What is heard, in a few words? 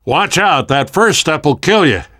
world hospital, namvet, survivor voice